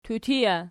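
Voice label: "tutija" nominative, Archi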